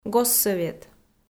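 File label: "госсӧвет" Komi, female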